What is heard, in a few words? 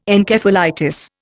"Encephalitis", Indian